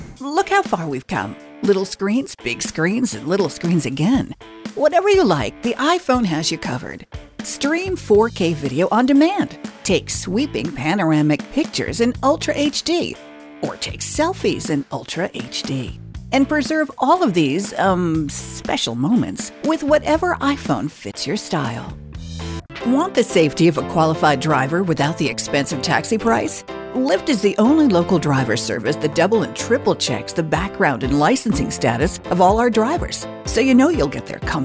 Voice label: Commercial, voice

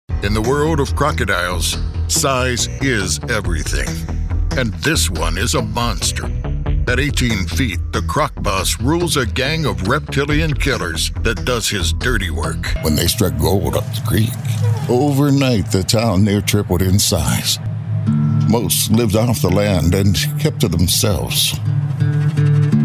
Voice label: Voice Documentary

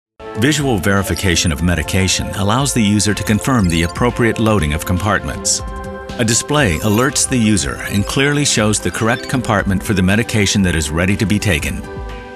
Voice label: Narration, voice

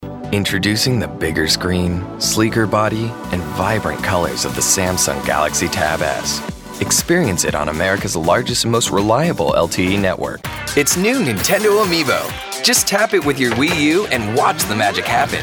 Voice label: Commercial

